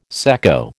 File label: "secco", American